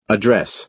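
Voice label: "address", American English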